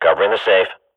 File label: radio bot guarding; Counter Strike Model Pack; CS:GO